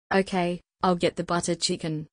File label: female indian